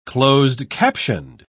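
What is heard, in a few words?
"closed-captioned", British English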